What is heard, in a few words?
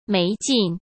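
"没劲", Mandarin Chinese; hsk; chinese-flashcards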